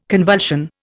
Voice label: "convulsion", Indian

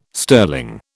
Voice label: "sterling", British

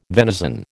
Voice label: "venison", American

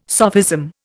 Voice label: "sophism", Indian

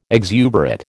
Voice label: "exuberate", American

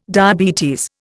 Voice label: "diabetes", Indian

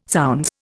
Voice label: "zounds", Indian

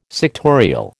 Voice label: "sectorial", American

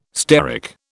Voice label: "steric", British

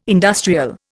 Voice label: "industrial", Indian

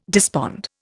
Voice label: "despond", Indian

